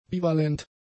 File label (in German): "bivalent", German; adjectives